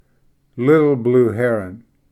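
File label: "little blue heron", English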